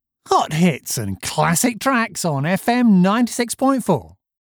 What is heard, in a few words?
Hot hits, voice-over; Genuine British Received pronunciation, cockney and Scottish